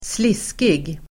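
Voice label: "sliskig", Swedish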